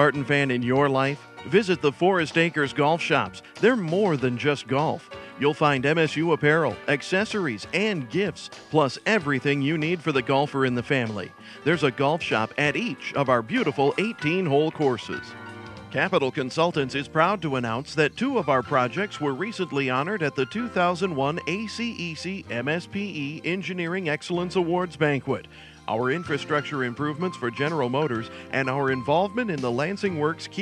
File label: male Hold Message, english